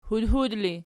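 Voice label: "hudhud" ergative, Archi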